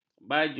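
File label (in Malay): "baju", Malay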